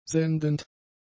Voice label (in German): "sendend", German; adjectives